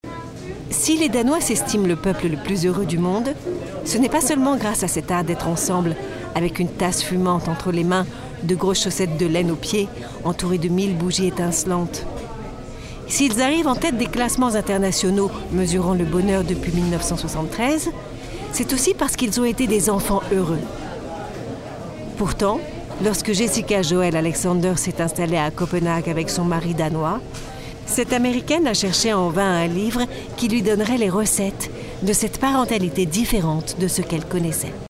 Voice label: narration; les danois